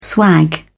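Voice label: "swag", British English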